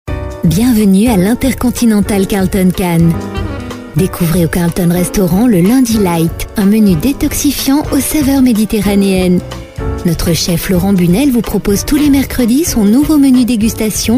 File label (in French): CLASSE POSE, AUDIOTEL REPONDEUR; CARLTON CANNES